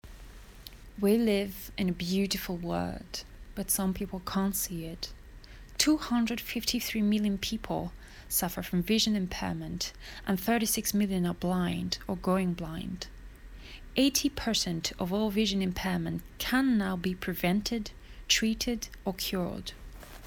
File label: UK accent in English